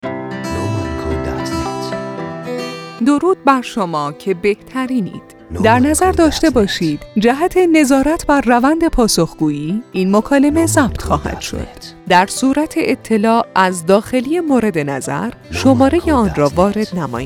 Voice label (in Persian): صدا برای تلفن گویا بخش خوش آمدگویی با گوینده خانم